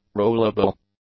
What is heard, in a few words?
"rollable", English; adjectives